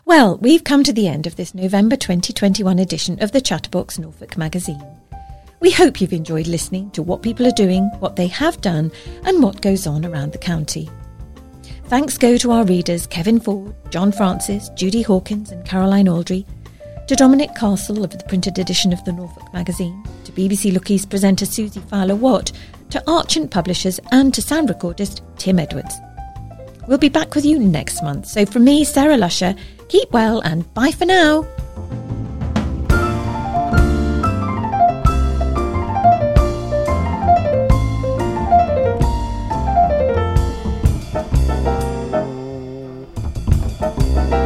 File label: Closing Announcement